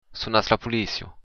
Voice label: police, occitan